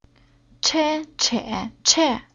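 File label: "Che", Chinese syllable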